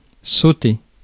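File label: "sauter", French; Mots francais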